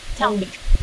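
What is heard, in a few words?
voice acting, es, female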